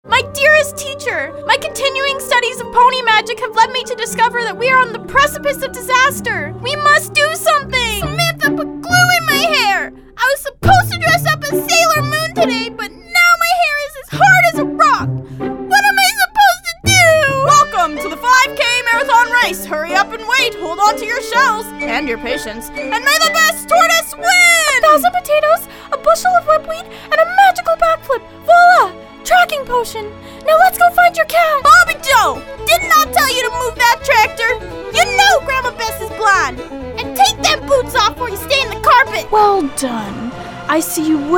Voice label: Animation VO